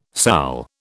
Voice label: "sal", British